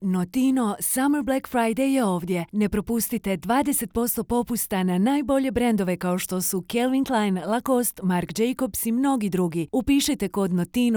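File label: Croatian, female, commercial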